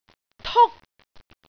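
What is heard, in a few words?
"tuk1", Cantonese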